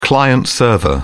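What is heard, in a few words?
"client-server", British English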